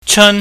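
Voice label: "cheun", Cantonese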